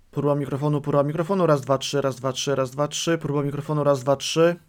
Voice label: maono